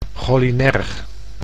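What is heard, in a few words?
"cholinerg", Dutch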